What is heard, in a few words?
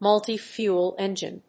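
"multifuel engine", English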